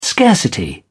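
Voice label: "scarcity", British English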